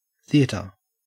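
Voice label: "theatre", Australian; pronunciations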